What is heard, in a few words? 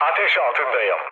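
Turkish on fire, mood low, speech; War Thunder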